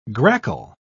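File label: "grackle", English, British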